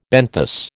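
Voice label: "benthos", American English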